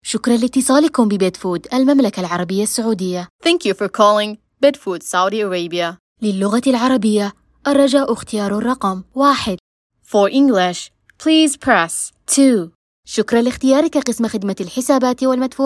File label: voiceover